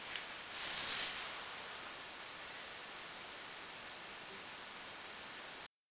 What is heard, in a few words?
evp "uh"